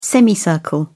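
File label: "semicircle", English, British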